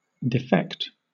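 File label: "defect", British English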